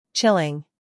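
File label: "scandal", American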